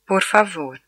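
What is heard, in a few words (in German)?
"bitte", German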